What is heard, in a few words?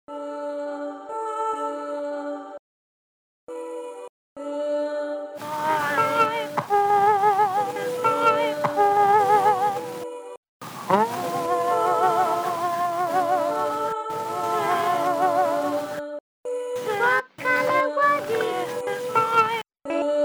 Telephone Recording; Interactive and generative sound installation; The Big Cellar Collective; Porthmeor